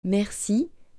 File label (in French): "Merci", French